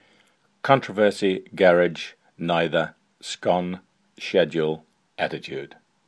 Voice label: Auckland New Zealand male